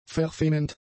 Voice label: "verfemend", German; adjectives